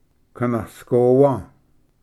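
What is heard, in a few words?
"kanasgowa", Cherokee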